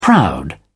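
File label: "proud", British English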